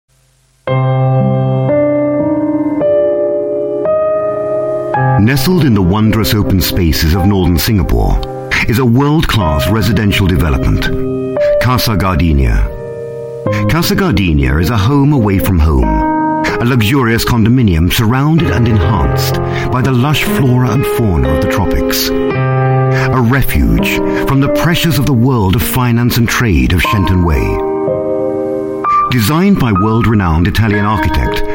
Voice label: UK English Voice Sample, Male